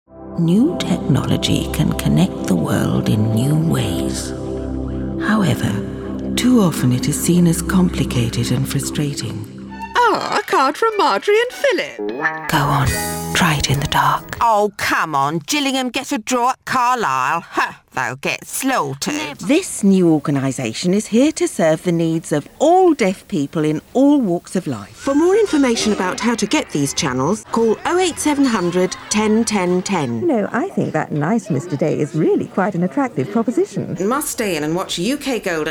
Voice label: UK Voice Narration